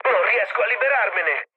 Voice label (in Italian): aircraft damaged, mood low, speech it; War Thunder